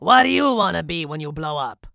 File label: Hero alchemist pissed, en